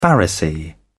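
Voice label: "pharisee", English, British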